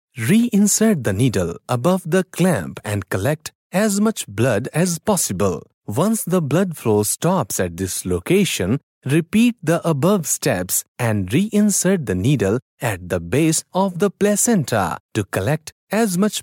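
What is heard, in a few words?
Punjabi, male, English Sample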